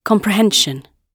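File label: "comprehension", British English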